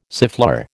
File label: "siffleur", American